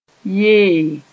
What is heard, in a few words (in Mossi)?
"yee", moore